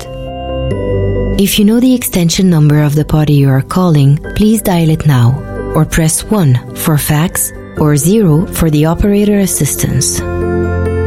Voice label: Arabic Female English; GAA